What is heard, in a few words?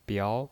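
"biao", Mandarin Chinese, second tone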